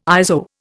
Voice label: "ISO", Indian